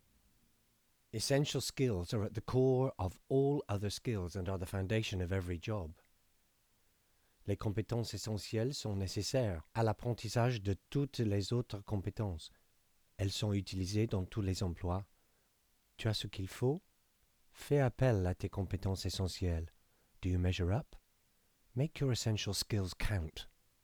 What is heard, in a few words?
Bilingual narration Fr Eng